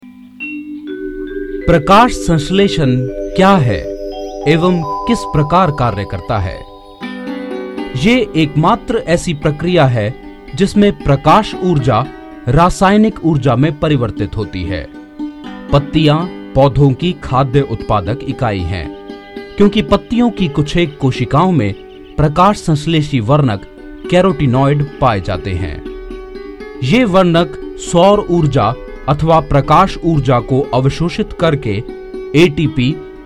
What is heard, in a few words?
E-Learning hindi Voice Over, male voice